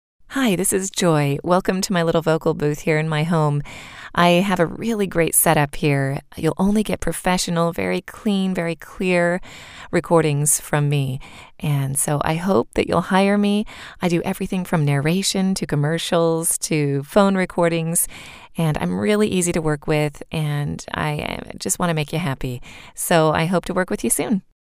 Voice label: Studio Sample